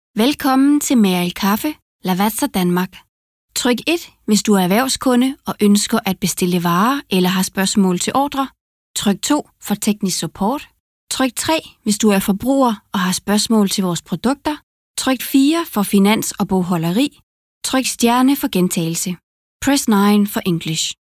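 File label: Danish, IVR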